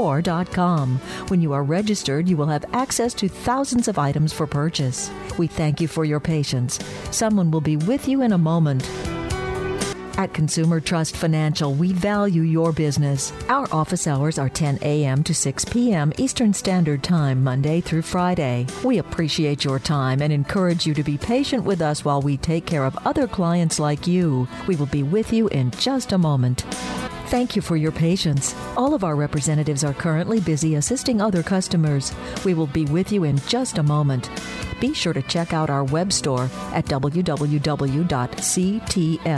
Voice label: Phone Hold IVR Female, Narration, Phone Hold/IVR